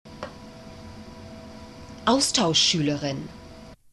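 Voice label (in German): "austauschschuelerin", German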